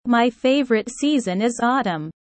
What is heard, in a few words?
low